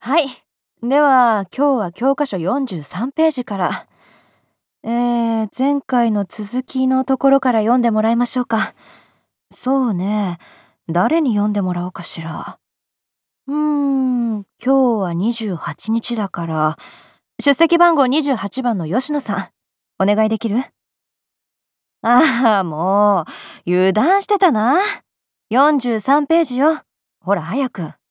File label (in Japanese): 成人女性